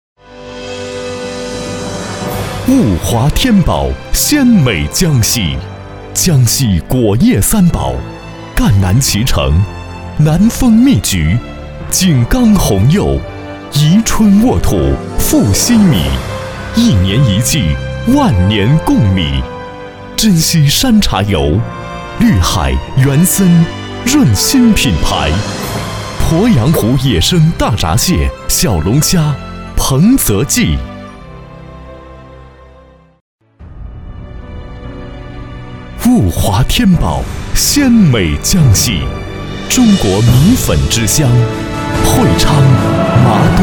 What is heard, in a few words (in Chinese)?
央视广告 江西农业